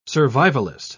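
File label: "survivalist", British English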